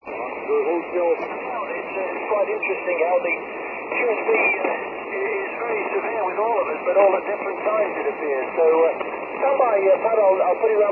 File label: 80m SSB